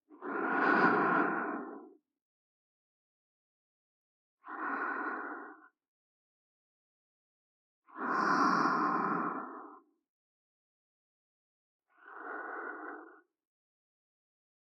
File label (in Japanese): 白衣の天使の添い寝ボイス; SE有り